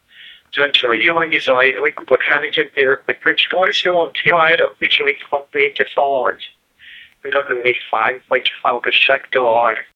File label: a voicemail memo of a, announcement